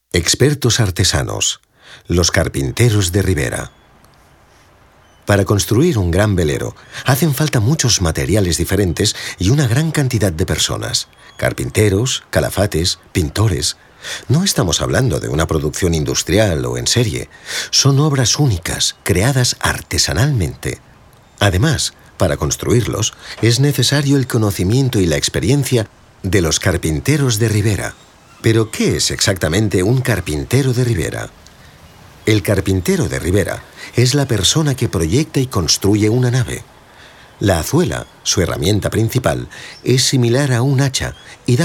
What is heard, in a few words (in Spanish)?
audioguia esp